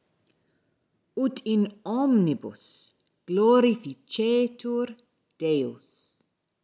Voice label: Ecclesiastical Pronunciation; Ut in omnibus glorificetur Deus.; That in all things, God may be glorified.